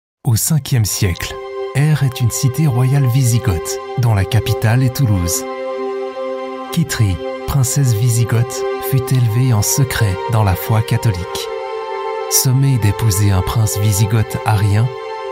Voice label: french male documentary explainer